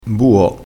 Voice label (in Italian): "buo", vocabolario dialettale